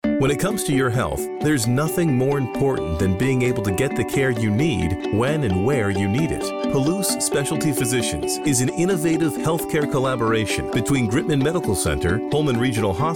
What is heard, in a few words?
radio commercial; Clinic